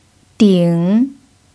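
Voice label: "dǐng", Mandarin Chinese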